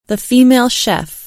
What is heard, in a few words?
female chef